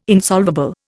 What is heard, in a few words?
"insolvable", Indian